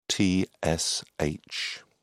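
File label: "tsh", British English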